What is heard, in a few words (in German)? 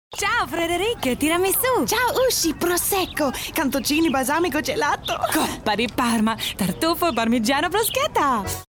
italienisch; edeka